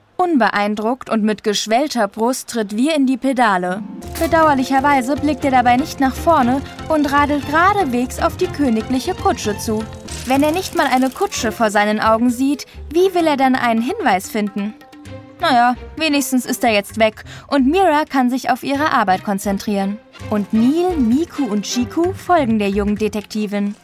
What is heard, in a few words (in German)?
Hörspiel; Mira die Meisterdetektivin